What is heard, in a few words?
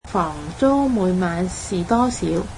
cantonese What is the charge per night